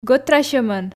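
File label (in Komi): "гӧтрасьӧмӧн", Komi, female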